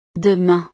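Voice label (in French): "demain", French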